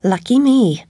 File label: yes, voice commands; svencoop; Alice